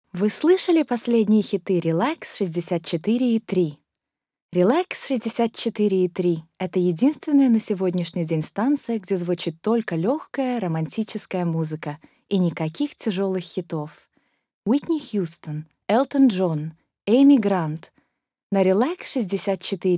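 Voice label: Russian, General; GoLocalise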